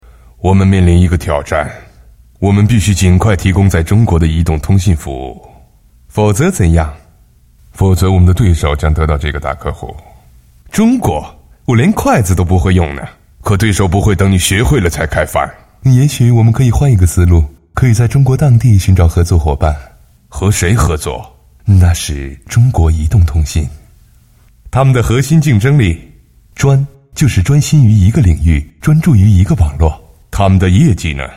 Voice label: 广告 手机通信 多个角色; 中国移动合作伙伴

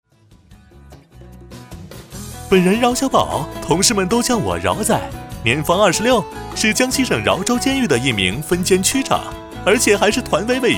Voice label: 宣传片, 病毒; 飞碟说饶小宝入党记

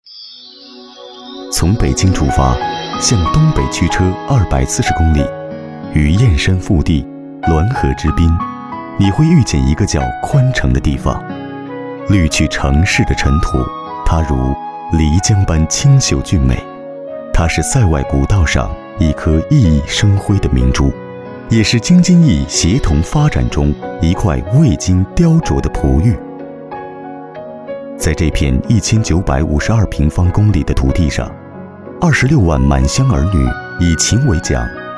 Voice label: 男国 宣传片 旅游 舒缓; 山水满乡

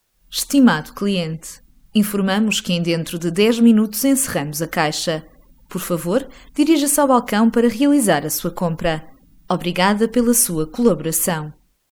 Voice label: 葡萄牙语女 外语; 小语种

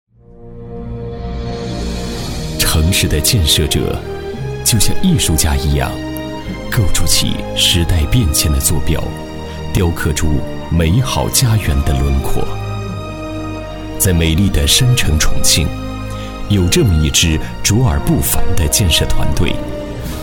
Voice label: 宣传片, 企业, 沉稳; 中建集团宣传片

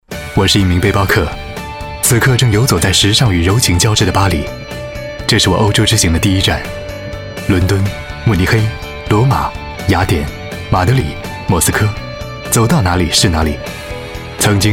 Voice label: 广告 温情; 品牌形象; 中国国际航空公司

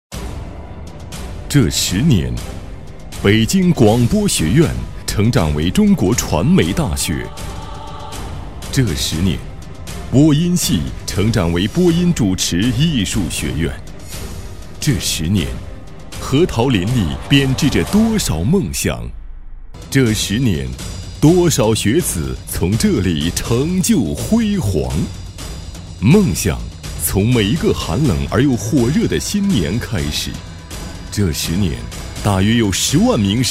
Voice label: 男国 专题 学校 浑厚; 中国传媒大学

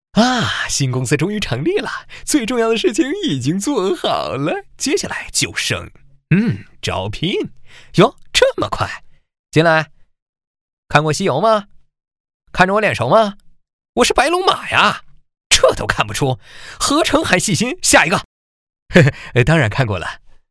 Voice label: 男国 动画 模仿; 万万没想到风格

